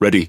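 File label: Speech Pilots Fighter Ready, British English; World Of Warships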